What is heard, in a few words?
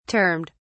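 "termed", British English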